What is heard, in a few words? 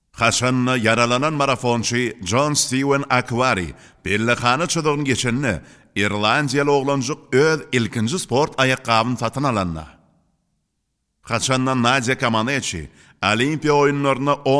turcoman voice over